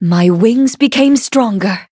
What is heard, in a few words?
levelup en; cookie run